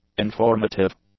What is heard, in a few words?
"informative", English; adjectives